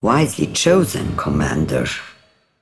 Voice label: Female; Broker; Recruit Medium Minion

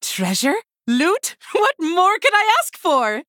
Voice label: Female Human

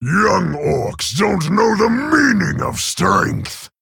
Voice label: Male Orc Idle

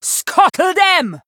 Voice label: Female Troll Attack; Captain Hooktusk

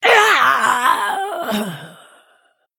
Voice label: Female Blood Elf Death

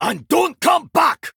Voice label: Male Dwarf Victory; Tavish

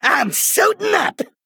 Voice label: Male Goblin

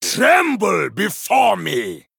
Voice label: Male Troll Threaten

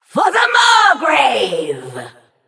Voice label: Female Naga Attack

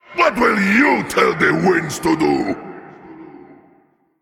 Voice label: Male Elemental Emote MIRROR START

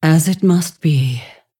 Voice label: Female Dragon Good Fight Hero Concede

